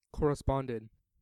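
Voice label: "corresponded", American English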